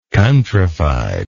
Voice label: "countryfied", English